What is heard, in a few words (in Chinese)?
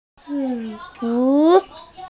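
"ci2 yu3" Mandarin Chinese, female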